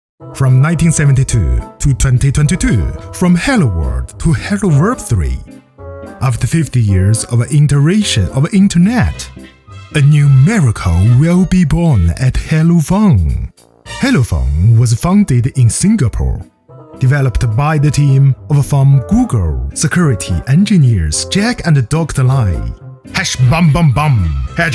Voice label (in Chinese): 英文动画 诙谐轻松 动画 男